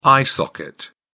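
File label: "eye socket", British English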